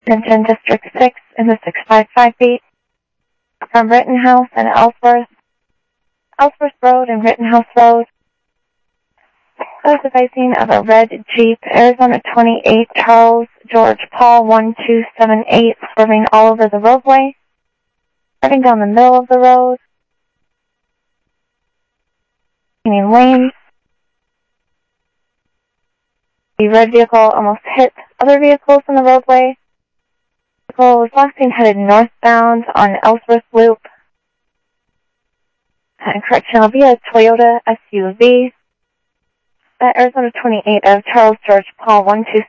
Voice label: Sheriff, scanner audio; South Scottsdale; MCSO - EAST Sheriff-EastCnty